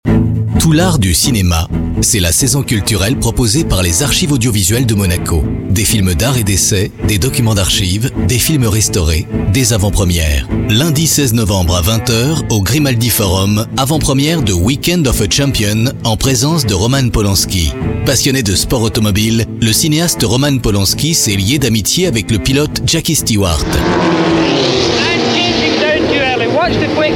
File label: french voice over male narrator